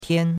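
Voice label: "tian1", Mandarin Chinese; Cours-de-Chinois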